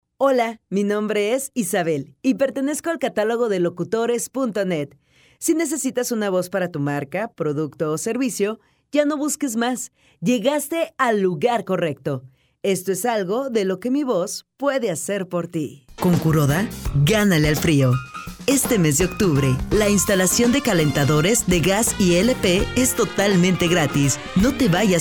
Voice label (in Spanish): locutora mexicana; mexico